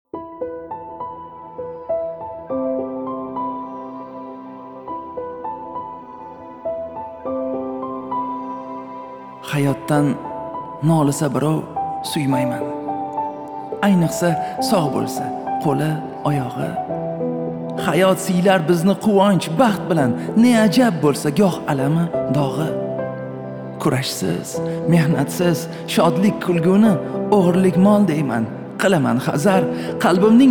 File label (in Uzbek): monolog; hayot